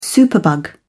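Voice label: "superbug", English, uk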